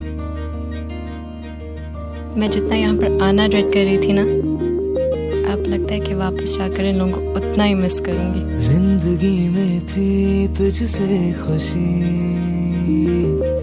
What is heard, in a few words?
dialouge song ringtone; janaan